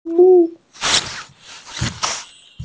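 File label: "qian bi", Mandarin Chinese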